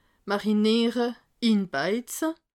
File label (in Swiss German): "mariniere", alsace